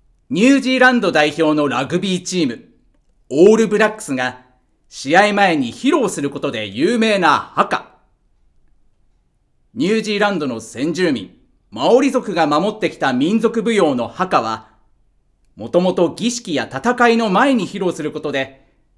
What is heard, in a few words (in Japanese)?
ナレ